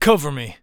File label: Cover, Human Male; zps; HumanMale-01